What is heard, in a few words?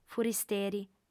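"furisteri", female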